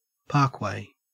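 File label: "parkway", Australian English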